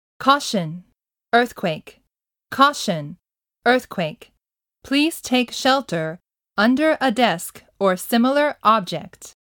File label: english